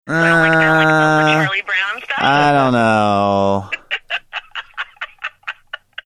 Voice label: drawn out uhh i dunno

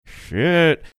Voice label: saying shit slight under breath

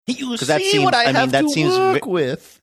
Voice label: saying you see what i have to work with funny

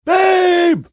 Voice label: saying babe funny

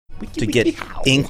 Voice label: saying funny manner; wikihow

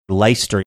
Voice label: mispronouncing leceister

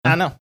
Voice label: saying i dont know mocking fashion

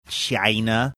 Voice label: saying china trump like

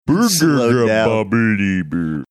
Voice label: speaking gibberish slomo

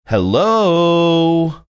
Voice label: saying hello sing singy

